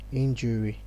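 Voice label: "injury", Nigerian Pidgin, male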